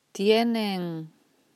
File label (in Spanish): "tienen", Spanish